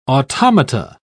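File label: "automata", English, British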